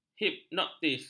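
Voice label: "hipnotis", Malay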